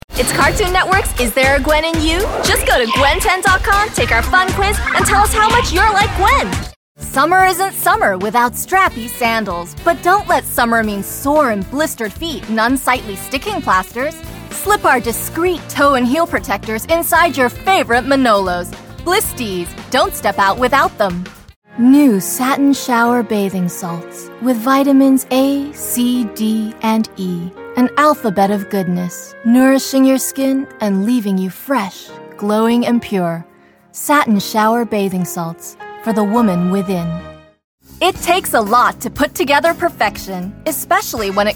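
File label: American English, TV commercial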